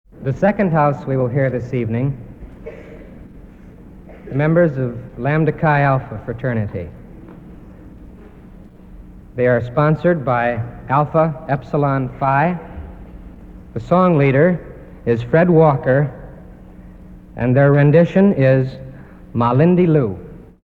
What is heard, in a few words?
announcement